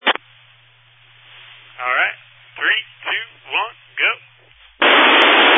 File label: Family Radio Service (FRS)